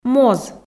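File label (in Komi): "моз", Komi, female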